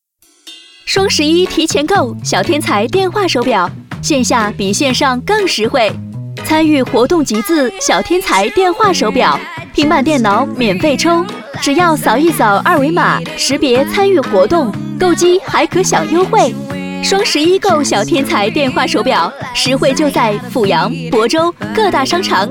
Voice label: 促销广告双十一促销

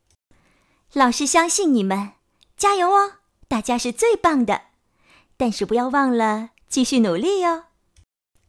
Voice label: 青年女性

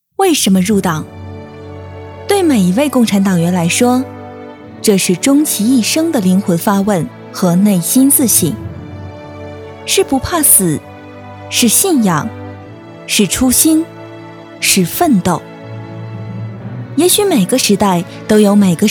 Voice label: 专题大气

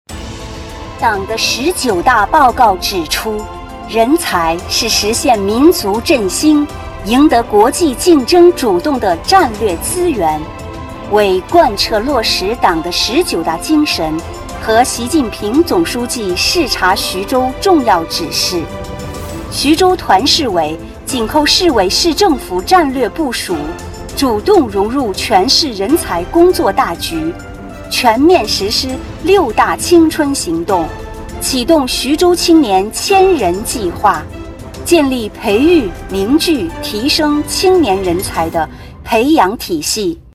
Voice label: 大气 磅礴 专题片

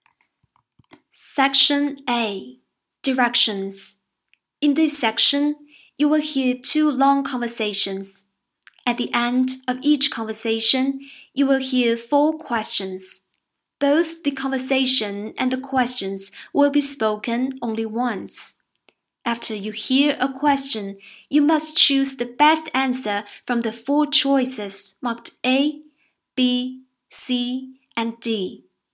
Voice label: 英语听力测试题英语英音

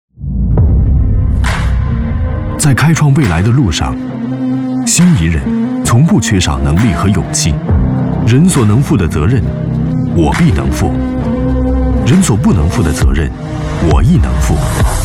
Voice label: 性感有态度

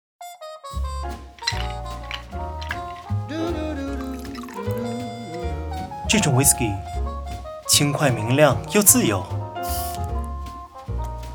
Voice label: 日系广告

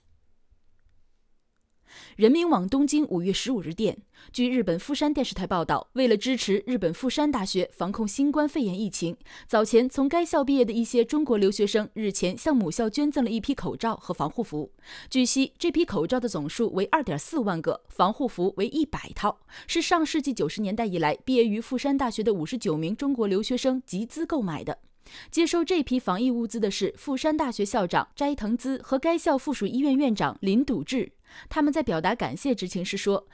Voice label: 新闻 新闻播音腔

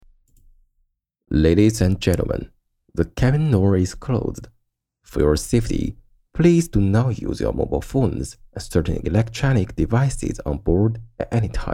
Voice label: 飞机起飞前广播

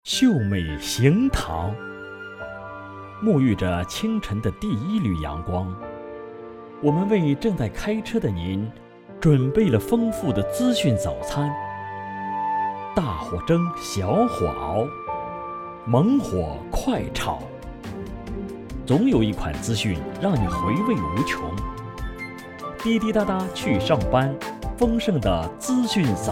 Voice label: 电台秀美

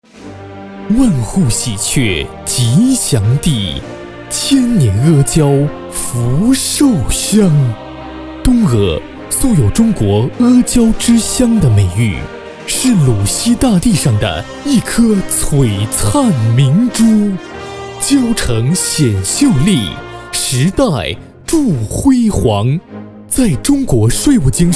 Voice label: 公务 沉稳坚定; 地域公务沉稳坚定东阿税务局

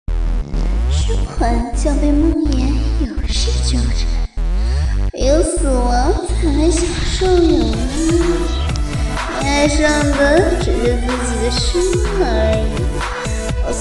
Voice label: 旁白病娇

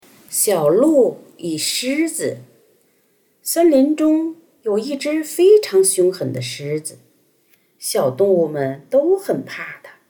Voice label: 儿童故事; 小鹿与狮子

